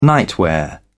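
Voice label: "nightwear", English, British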